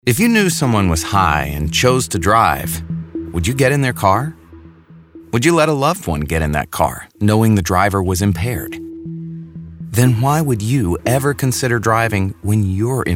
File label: Radio English TRAFFIC